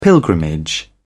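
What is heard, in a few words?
"pilgrimage", British English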